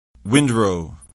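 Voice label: "windrow", English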